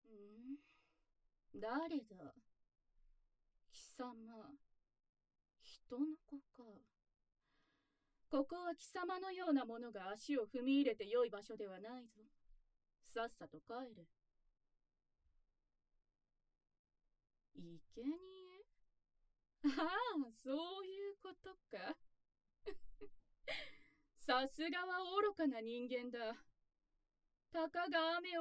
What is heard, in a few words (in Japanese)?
挑発囁き耳舐め（効果音なし）, ASMR; 鬼との出会い